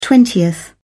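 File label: "twentieth", English, British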